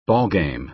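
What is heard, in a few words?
"ball game", American English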